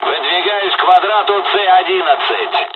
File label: dialogs russian; War Thunder